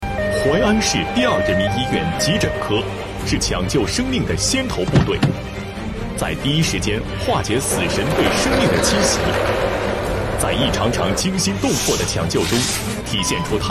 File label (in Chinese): 男 宣传片 大气; 淮安二院